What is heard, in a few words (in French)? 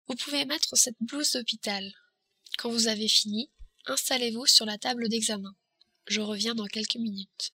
mettre blouse, french